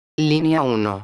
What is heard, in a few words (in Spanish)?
linea 1, megafonias; exterior